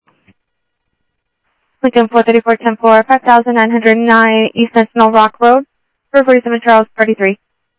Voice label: South Scottsdale Sheriff, scanner audio